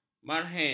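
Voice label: "marhaen", Malay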